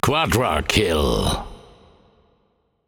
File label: Announcer German Spree 4 Kills; Spree Classic